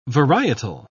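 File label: "varietal", British English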